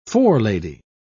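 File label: "forelady", British English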